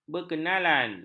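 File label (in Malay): "berkenalan", Malay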